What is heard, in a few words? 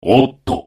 desperation power; paradox bondrewd voice pack; chrono